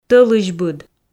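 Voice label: "тӧлысьбыд", Komi, female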